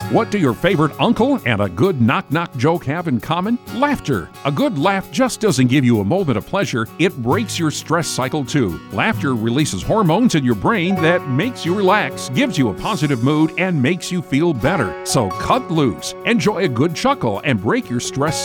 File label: Male; Laughter